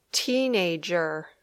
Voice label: "teenager", English

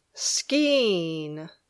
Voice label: "skiing", English

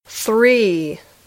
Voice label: "three", English

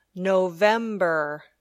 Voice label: "November", English

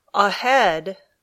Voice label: "ahead", English

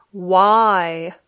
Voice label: "why", English

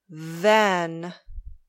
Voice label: "then", English

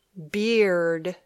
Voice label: "beard", English

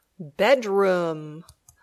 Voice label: "bedroom", English

